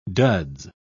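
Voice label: "duds", British English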